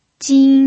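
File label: "jin", first tone, Mandarin Chinese pinyin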